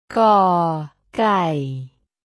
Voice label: "ก่", jezyk tajski